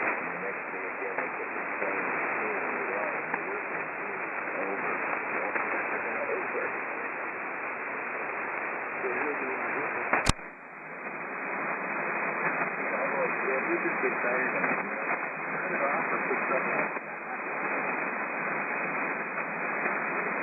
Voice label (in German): Amateurfunk LSB; 3850khz